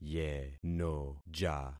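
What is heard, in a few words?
"yenoja", concatenated syllables; Affixing experiment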